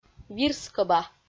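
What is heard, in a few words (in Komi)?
"вирскӧба", Komi, female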